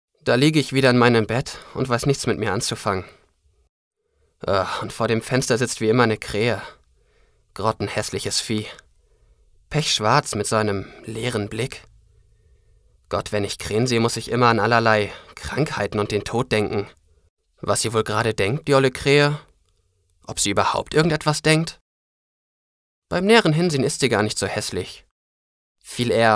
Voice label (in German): hoerspiel, sprecher